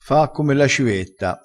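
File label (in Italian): "fa come la civetta", vocabolario dialettale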